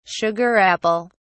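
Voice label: "sugar apple", British English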